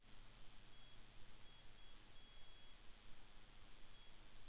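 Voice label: "also", spoken word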